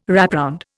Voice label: "wrapround", Indian

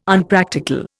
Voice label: "Unpractical", Indian

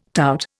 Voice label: "tout", Indian

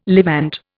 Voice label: "Levant", Indian

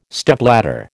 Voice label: "Stepladder", American

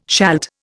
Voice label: "shalt", Indian

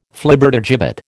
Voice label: "Flibbertigibbet", American

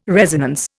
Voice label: "resonance", Indian

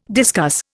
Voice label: "discuss", Indian

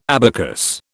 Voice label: "abacus", British

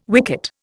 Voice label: "wicket", Indian

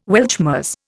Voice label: "Weltschmerz", Indian

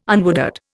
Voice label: "unwooded", Indian

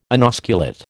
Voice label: "inosculate", American

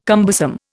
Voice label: "cumbersome", Indian

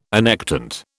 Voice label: "annectent", British

